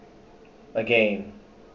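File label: "again", Canadian, male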